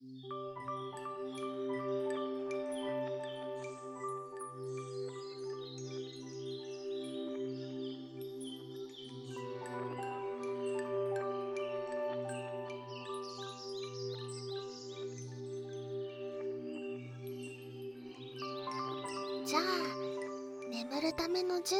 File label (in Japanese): 睡眠法, ASMR; モカちゃんによるシャッフル睡眠法～ロングバージョン～